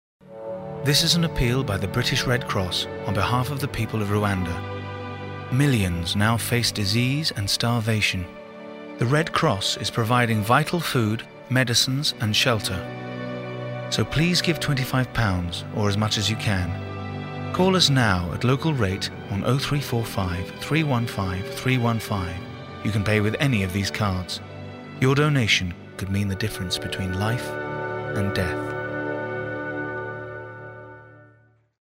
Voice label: Announcer, Assured, Neutral RP; BRITISH RED CROSS